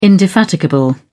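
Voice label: "indefatigable", British English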